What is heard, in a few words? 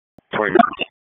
Police Northwest District Tac 1; Raleigh; wake